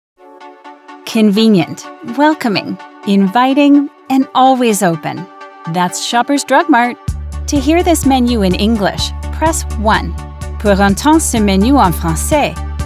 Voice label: Telephony

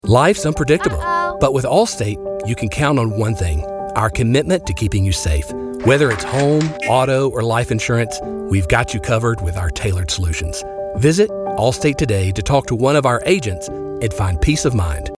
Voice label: with music; AllState

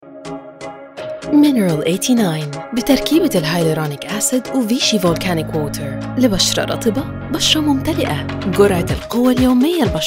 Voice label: Egyptian Commercial; Showreel

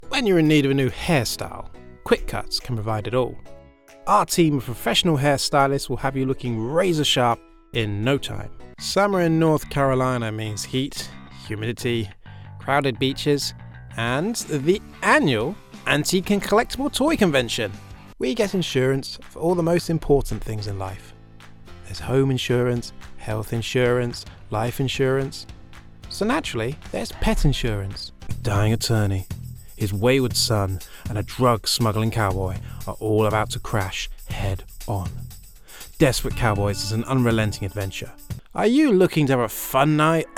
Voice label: Commercial; freelance voice over artist

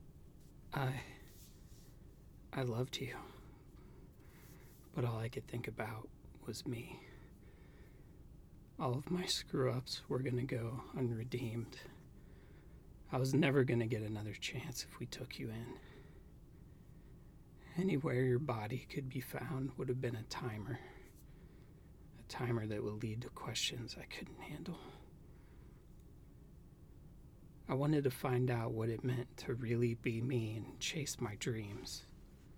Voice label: emotional confession

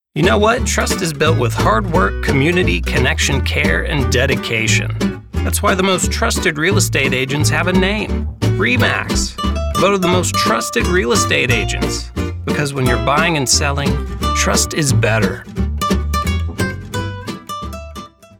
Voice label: VO Style Clear, Bright; Houston, TX

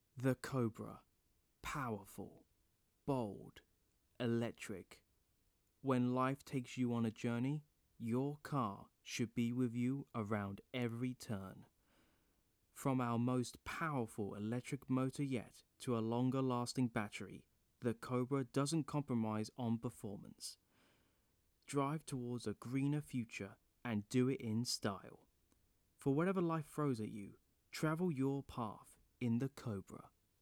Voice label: car commercial

